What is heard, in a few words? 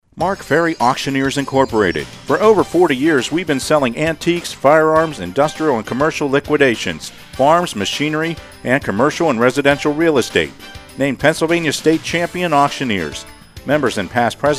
radio ad